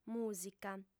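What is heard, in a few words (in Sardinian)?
"mùsica", female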